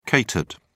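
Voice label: "catered", British English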